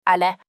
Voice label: "aller", French

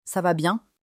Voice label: "Ça va bien", French; kikitorerukedohanasenai

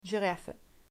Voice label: "giraffe", French

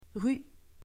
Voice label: "rue", French

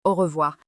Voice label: "Au revoir", French

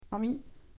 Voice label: "ami", French

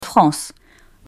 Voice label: "France", French

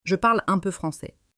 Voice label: "Je parle un peu français", French

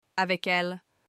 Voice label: "avec elle", French

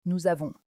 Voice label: "Nous avons", French

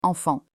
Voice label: "enfant", French

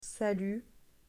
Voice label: "salut", French

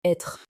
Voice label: "être", French

